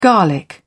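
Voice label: "garlic", British English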